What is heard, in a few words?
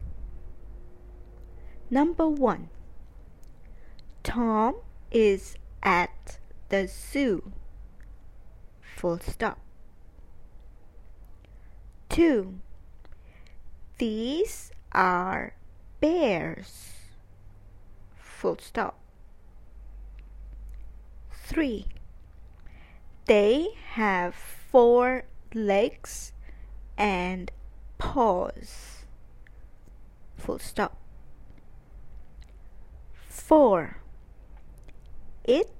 Dictation